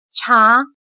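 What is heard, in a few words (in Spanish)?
"茶", chino mandarin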